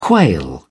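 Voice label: "quail", English, British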